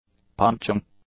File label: "punching", English; nouns